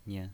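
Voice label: "nian", pinyin voice, Mandarin Chinese, neutral tone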